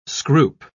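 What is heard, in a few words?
"scroop", British English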